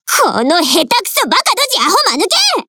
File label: Speech Quick Commands Caramba; Hipper; wows